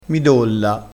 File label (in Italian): "midolla", crespinese